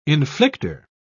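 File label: "inflicter", British English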